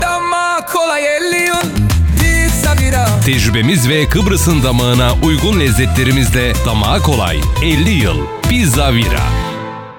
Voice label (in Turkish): pizza reklam; pizza vira